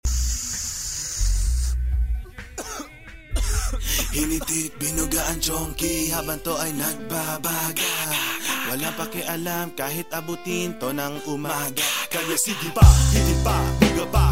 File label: Rapper